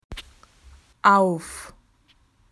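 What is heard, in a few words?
"on", Australian English; PictureDictionary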